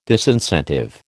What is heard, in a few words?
"disincentive", American